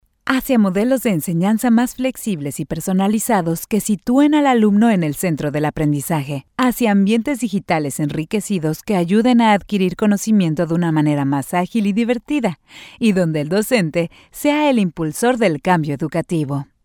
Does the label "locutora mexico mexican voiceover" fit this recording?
Yes